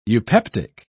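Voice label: "eupeptic", British English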